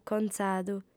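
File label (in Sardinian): "contzadu", female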